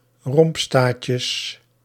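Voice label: "rompstaatjes", Dutch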